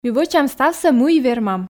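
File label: Komi, female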